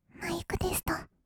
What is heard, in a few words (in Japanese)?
"マイクテスト", kawaii voice; 1200 kawaii voice!!; 色々なワンショット